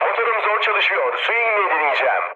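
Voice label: Turkish damaged engine, mood med, speech; War Thunder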